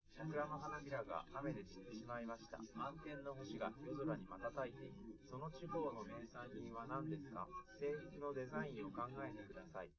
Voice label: male live rec